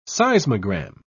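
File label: "seismogram", British English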